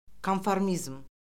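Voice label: "konformizm", Azerbaijani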